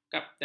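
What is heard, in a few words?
"kapten", Malay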